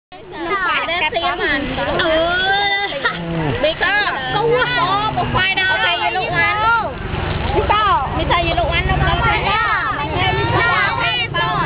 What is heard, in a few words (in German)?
selling girl, Souvenirverkaeuferin beim Ta Prohm Tempel; Tempel im Wald; thai cambodia